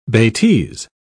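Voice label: "betise", British English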